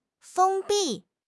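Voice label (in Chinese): "封闭", Chinese; HSK6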